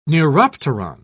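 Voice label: "neuropteron", British English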